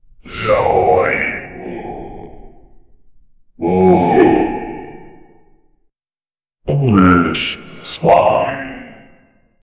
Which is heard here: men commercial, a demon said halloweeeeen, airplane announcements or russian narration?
a demon said halloweeeeen